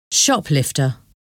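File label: "shoplifter", English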